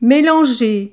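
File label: "melanger", French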